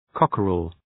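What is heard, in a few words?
"cockerel", English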